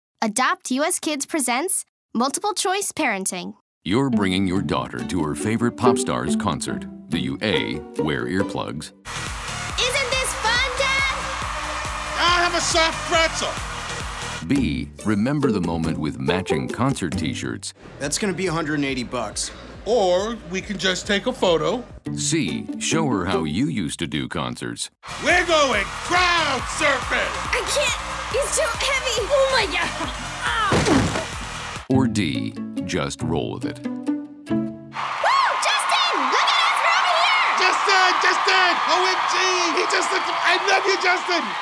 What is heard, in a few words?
Radio; ad council adoptuskids adopt from foster care; Teenbop Concert